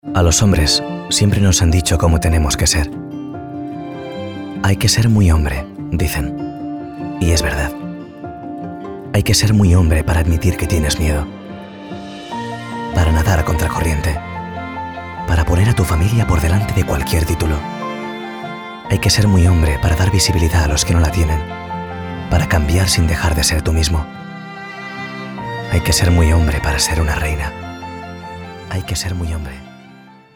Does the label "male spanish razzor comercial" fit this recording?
Yes